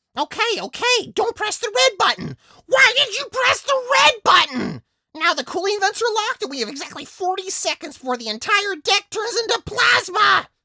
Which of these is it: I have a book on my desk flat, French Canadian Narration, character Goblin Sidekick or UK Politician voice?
character Goblin Sidekick